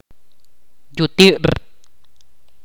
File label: "fruta" in Ch'orti'